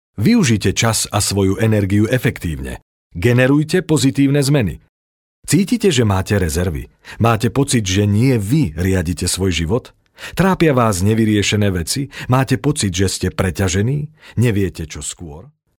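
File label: Slovak, male, explainer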